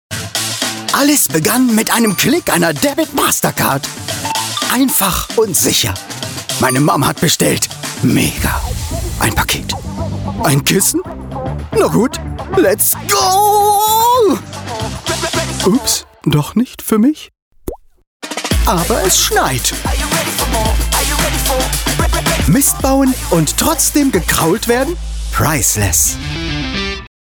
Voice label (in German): Werbefigur Hund; Mastercard